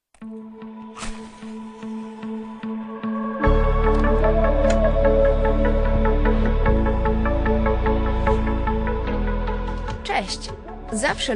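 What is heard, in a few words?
Polish, female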